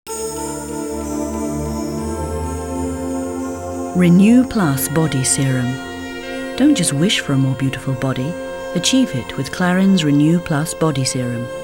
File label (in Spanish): anuncio sexy